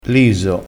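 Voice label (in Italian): "liso", crespinese dialettale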